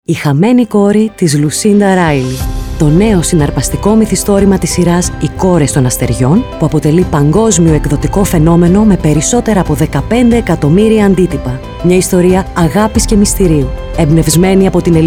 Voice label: Radio Spot